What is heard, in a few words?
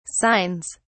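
"signs", British English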